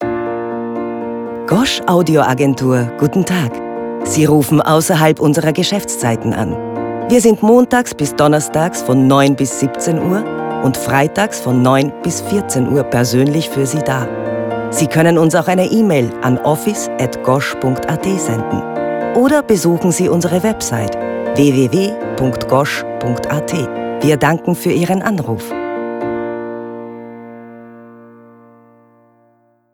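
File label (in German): Deutsch Tele Ansage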